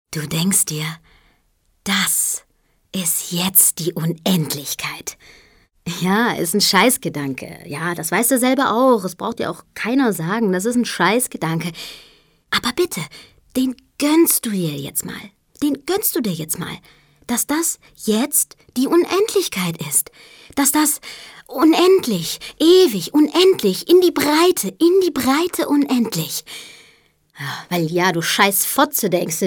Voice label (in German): Schauspiel Sanft